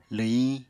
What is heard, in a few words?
"lwhiñ", Apatani